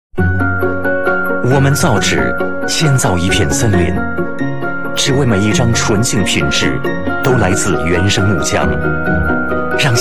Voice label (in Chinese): 男 广告 年轻 阳光 国语男声配音